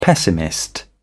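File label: "pessimist", British English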